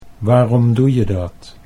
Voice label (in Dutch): waarom doe je dat, Dutch